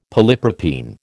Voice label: "polypropene", American

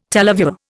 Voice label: "televiewer", Indian

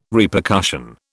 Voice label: "Repercussion", British

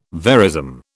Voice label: "verism", British

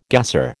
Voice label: "gasser", American

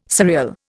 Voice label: "surreal", Indian

